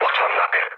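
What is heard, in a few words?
enemy detected, mood low, Hungarian; speech; War Thunder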